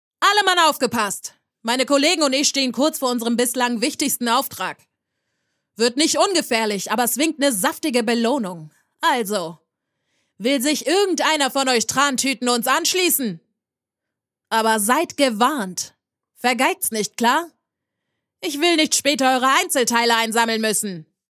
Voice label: DE Synchron Toughe Soeldnerin

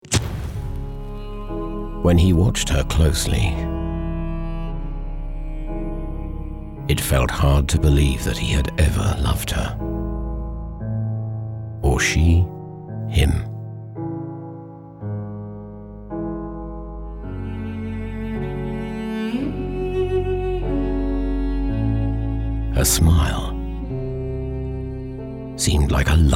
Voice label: uk englisch; voxhaus

